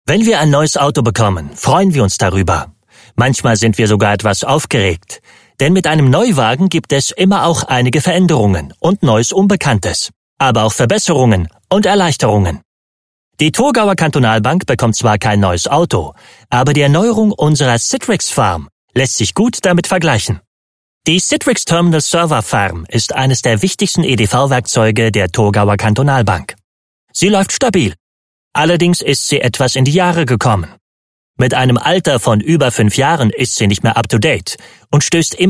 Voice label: German Corporate